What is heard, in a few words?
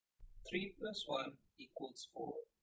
calm eng Fearful generated; GAN for speech spectrogram